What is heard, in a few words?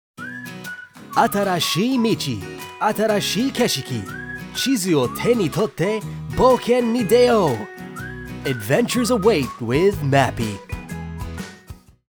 KATAKOTO Happy Inspired Confident, Navigation App CM